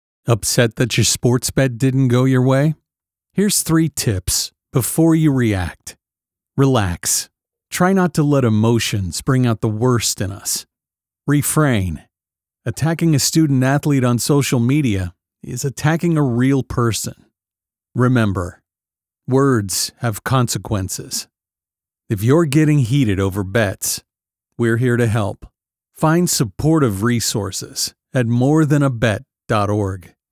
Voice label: Radio